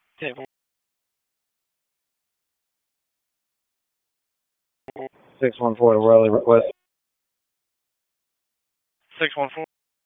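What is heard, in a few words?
Police Southwest District Disp, radio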